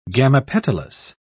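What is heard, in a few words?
"gamopetalous", English, British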